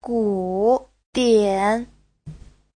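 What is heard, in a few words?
"gǔdiǎn", Mandarin Chinese; Giáo trình Hán ngữ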